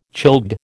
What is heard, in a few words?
"childbed", American